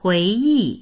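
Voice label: "hui2yi4", Mandarin Chinese; 回忆; 思い出す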